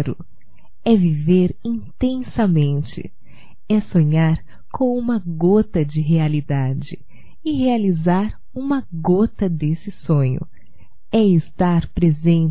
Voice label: AMAR É - F, Românticas fem

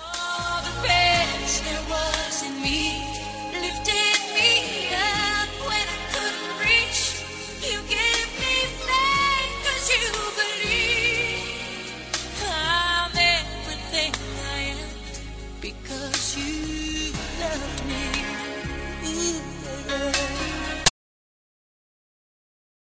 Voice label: CONVITE PARA SAIR MASC; Telemensagens; Convites e Agradecimentos; Telemensagens 2017

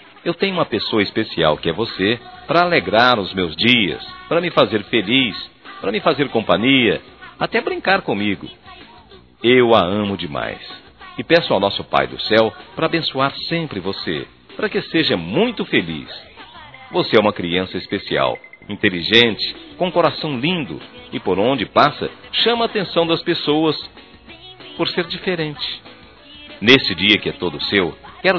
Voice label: Aniversário Neta Masculino; Telemensagens 2017; Aniversários; Aniversário Infantil

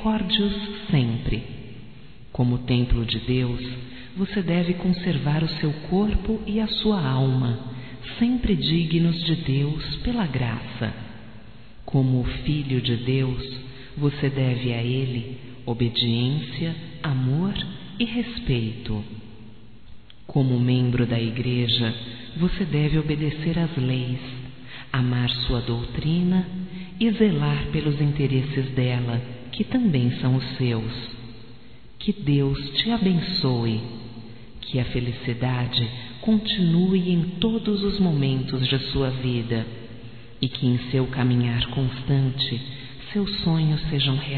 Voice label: Mensagem de Batizado Para Pais Feminino, Telemensagens, Só Voz; Telemensagens 2017

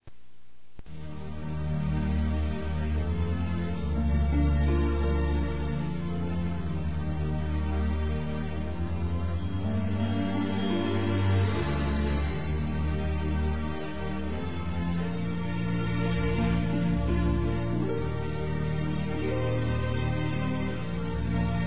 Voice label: Parabéns Pelo Nascimento, Telemensagens; Parabenização

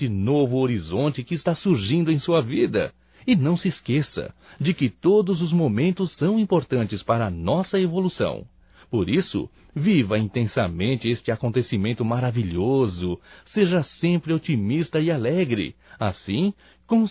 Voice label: Aniversário 15 Anos Masculino, Só Voz; Telemensagens; Telemensagens 2017

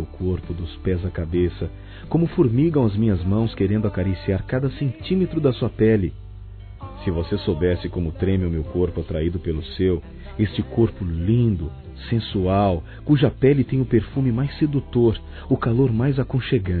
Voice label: Romantica Sedução Quero Compartilhar Desejo Masculino

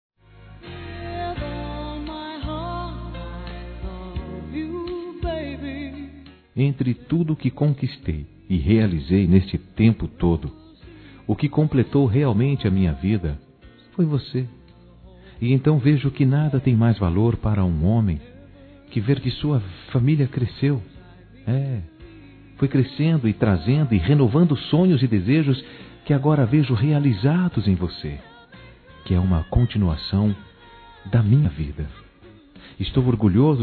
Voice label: Neta Criança Masculino; Telemensagens 2017; Aniversários; Aniversário Infantil

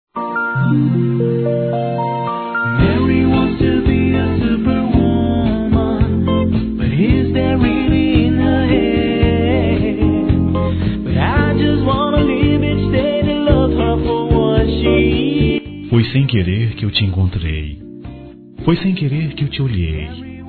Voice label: Suave Masculino

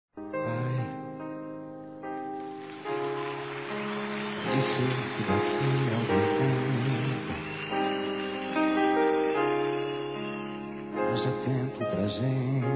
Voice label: AO PAI F, Homenagens fem

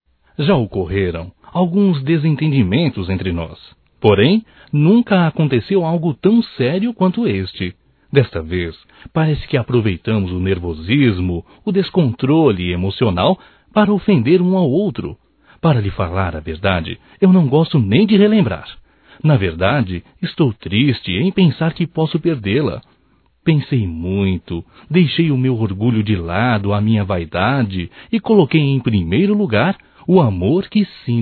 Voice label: RECONCILIAÇÃO, Telemensagens, Românticas, masc

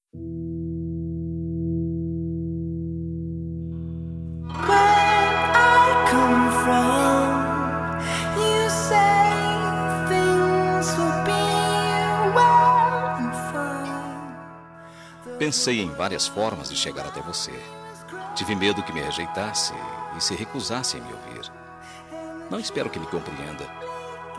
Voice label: DESCULPAS AMIZADE M; Telemensagens 2017

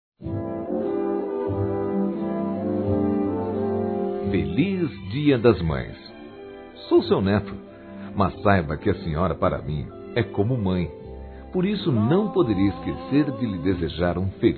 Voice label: AVÓ M; Dia das Mães; Masc; Telemensagens 2017

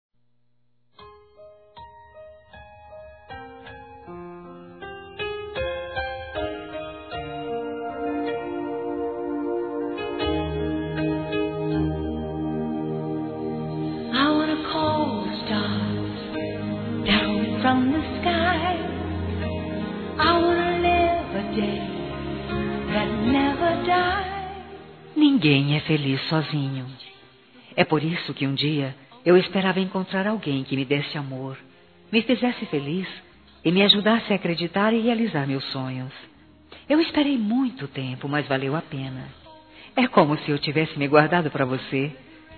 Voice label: Aniversário Esposo Feminino, Telemensagens; Aniversários; Aniv Familiar

